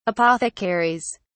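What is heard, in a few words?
"apothecaries", British English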